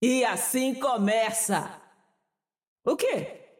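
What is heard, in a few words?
Portuguese; 索杰恩（守望先锋2）; 终极技能; 主动/敌方释放语音; 葡萄牙语; E assim começa! (pausar)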